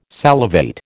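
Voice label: "salivate", American English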